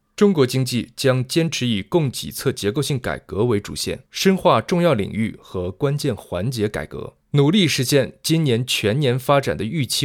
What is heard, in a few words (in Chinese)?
新闻类